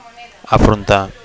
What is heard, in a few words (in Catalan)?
"afrontar", Catalan; to face; to face up to; to confront